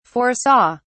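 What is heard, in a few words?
"foresaw", British English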